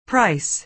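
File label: "price", American English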